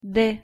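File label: "д" Russian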